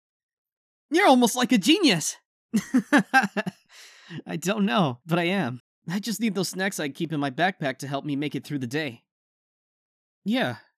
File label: Audio Drama High School Boy Lines; 21 year old Voice Actor; Good Enough